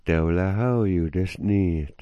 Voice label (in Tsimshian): "dawila", Sm'algyax